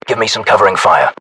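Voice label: order action cover me, British; localized english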